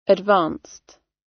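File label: "advanced" British English; Technology; Công nghệ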